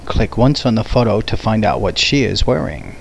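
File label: "she", English; Hot Skins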